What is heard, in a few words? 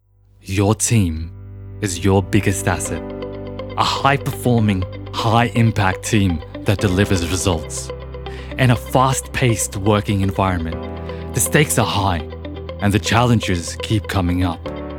corporate voice over with music